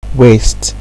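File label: "waist" Nigerian Pidgin, male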